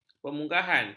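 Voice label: "pemunggahan", Malay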